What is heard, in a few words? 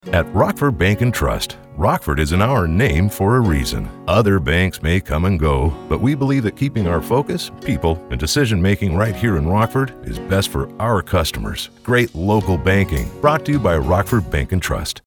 radio spot; Chartwell; Integrated AdCampaign